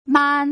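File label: "man", Mandarin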